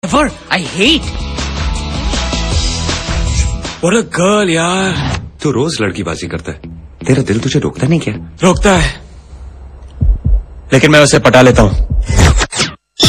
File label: Dialog